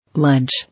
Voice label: "Lunch", English